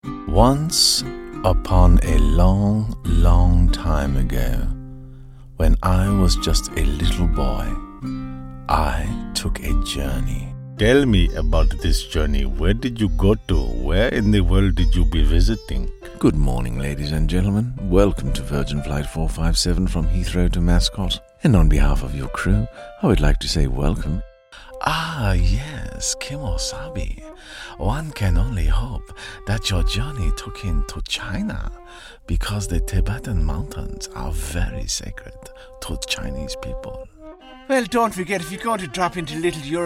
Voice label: 10 accents